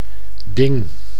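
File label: "ding", Dutch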